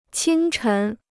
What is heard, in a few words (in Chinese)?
"清晨", Chinese